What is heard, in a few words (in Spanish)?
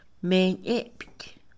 "espuma de mar", Mixe, Mujer; INALI